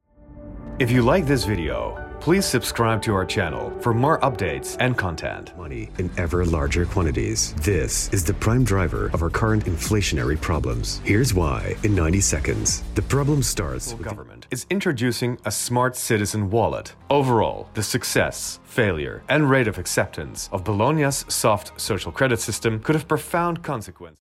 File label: English